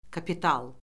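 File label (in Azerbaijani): "kapital", Azerbaijani; Orfoqrafiya Lüğəti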